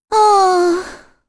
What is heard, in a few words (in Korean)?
Vox Deny, kr; heroes; Kirze; kings raid